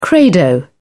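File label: "credo", British English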